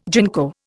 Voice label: "ginkgo", Indian

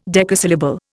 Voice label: "decasyllable", Indian

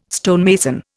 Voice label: "stonemason", Indian

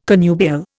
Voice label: "connubial", Indian

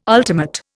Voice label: "ultimate", Indian

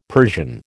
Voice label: "Persian", American

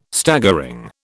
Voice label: "Staggering", British

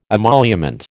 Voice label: "emolument", American

